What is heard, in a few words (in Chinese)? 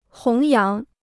"弘扬", Chinese